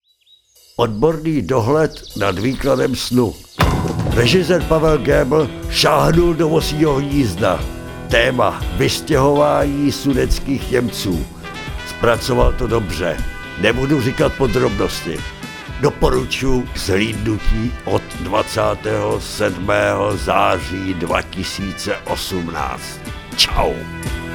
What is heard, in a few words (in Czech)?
radio spot